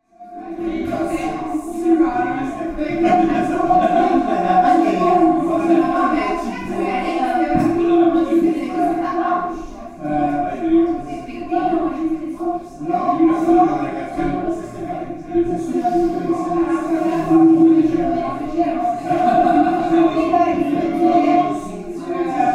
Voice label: Ambience Through Seashell, Dinner 10 People, House, French Speaking, Montreal, Canada; Articulated Sounds; Seashell Resonance